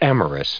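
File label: "amorous", US English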